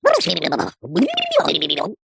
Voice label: cartoon voice high pitched funny crazy gibberish